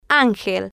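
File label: "angel", Spanish, female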